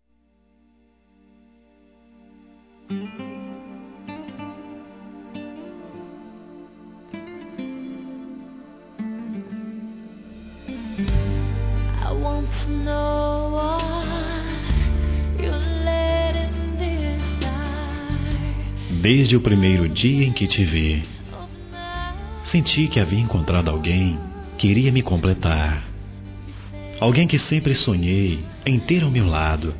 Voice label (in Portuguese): Declaracao de Amor, masc; Telemensagens; Telemensagens 2017